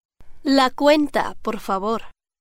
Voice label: check please spanish